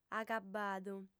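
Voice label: "agabbadu", Sardinian, female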